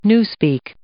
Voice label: "newspeak", English